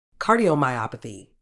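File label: "cardiomyopathy", British English; ˌkɑː.diː.əʊ.maɪˈɒp.ə.θiː; kah-di-oh-mai-OP-uh-thee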